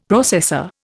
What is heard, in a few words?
"processor", Indian; Dictionary